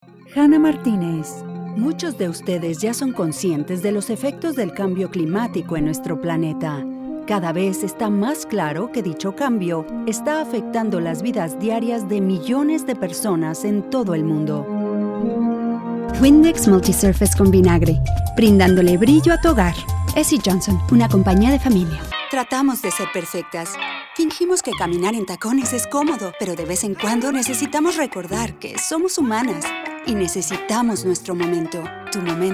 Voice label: languages spanish